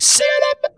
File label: "CHELOB", funky vocals; USB Soundscan vol.18; AKAI; TB MELODY